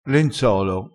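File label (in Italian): "lenzolo", vocabolario dialettale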